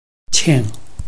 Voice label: "qing4", Chinese